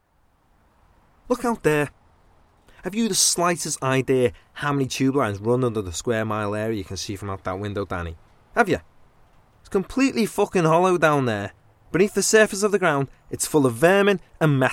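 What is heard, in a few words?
English UK accent Scouse; GoLocalise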